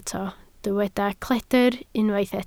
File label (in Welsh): "cledr", Welsh